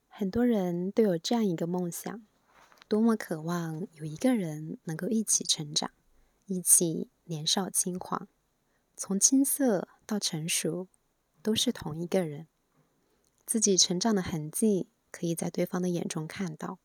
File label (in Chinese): 情感文案电台音